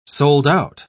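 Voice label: "sold-out", English, British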